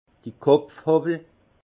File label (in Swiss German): "kopfhowwel", alsace; vin; rabot de tête